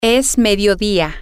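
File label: its midday, Spanish, female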